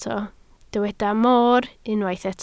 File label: "moor", Welsh